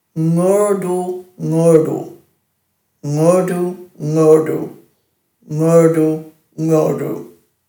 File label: "ngurdu-ngurdu", Dhurga